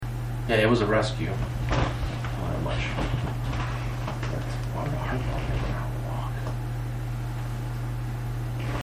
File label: bar area over lapped male voice; heaven city